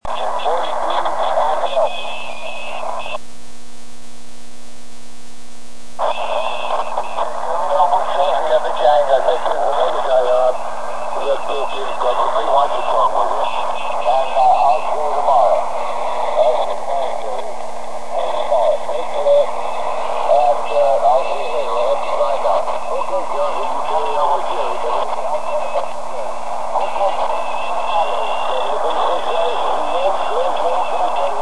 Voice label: AO-13 Mode B SSB QSO; April 11th 1989